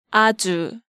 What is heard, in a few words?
"Aju", Korean